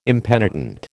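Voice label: "impenitent", American English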